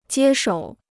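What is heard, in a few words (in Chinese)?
"接手" Chinese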